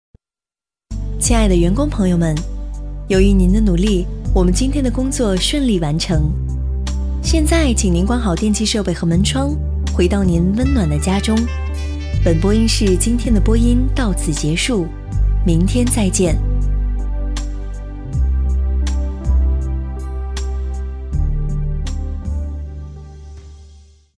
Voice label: 闭店员工提醒

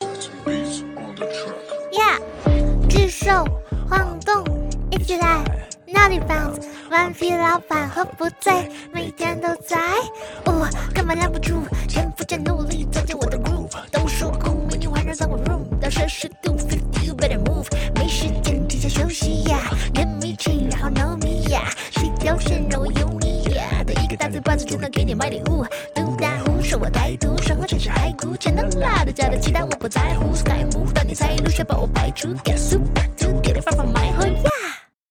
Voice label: 萝莉

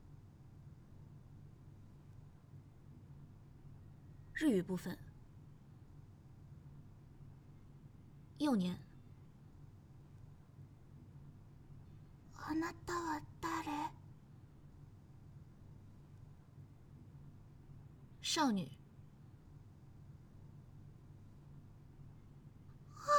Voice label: 日语声展

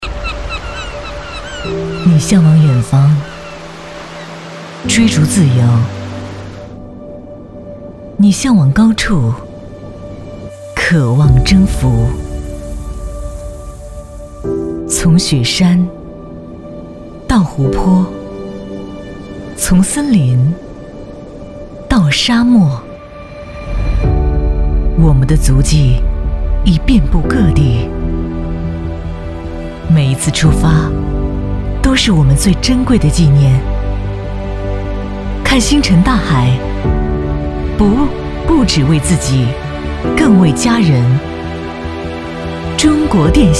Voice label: 女; 陕西电信

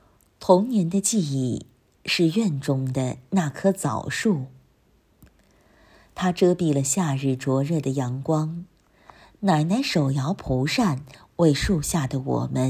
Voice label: 文章朗读节选

